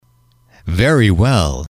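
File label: "very well", English, male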